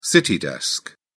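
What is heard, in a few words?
"city desk", British English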